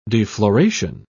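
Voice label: "defloration", British English, dictionary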